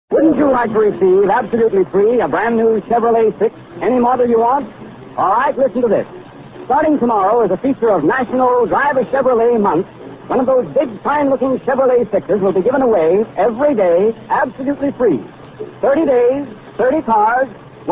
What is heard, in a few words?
Commercials Cheverolet